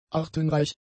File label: "artenreich", German; adjectives